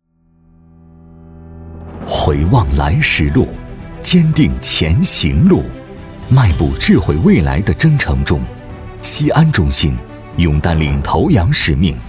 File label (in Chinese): 男 企业; 中国石油西安中心